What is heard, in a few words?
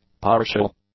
"partial", English; adjectives